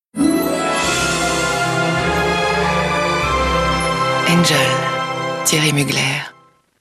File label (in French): tv parfum voix souflee sensuelle; parfum angel thierry mugler